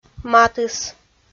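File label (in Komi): "матыс", Komi-Zyrian, female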